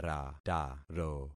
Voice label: "rataro", concat syllables; Affixing experiment